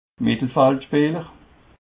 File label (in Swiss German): "mettelfeldspeeler", alsace